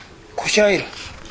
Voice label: "koshaeru", minamiyamagata